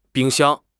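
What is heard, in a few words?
"bing1 xiang1", Mandarin Chinese; HSK3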